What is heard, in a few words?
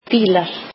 icelandic cars